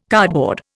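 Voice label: "cardboard", Indian English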